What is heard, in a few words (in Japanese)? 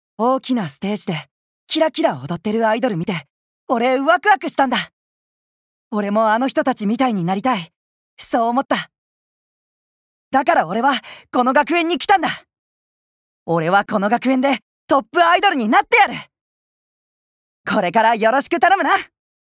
アニメ